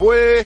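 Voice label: "boy", reggae guy; ooh ahh; rggae guy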